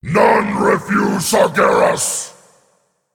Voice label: Male Demon Hero Power